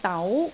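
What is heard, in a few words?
"dau2", Cantonese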